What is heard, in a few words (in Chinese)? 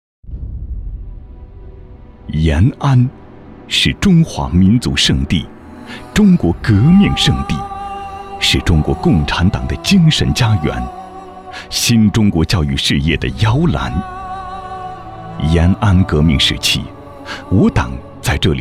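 专题浑厚感情; 延安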